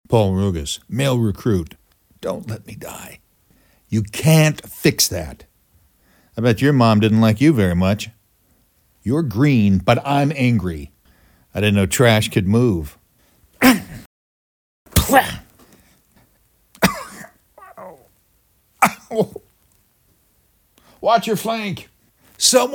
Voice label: Recruit Male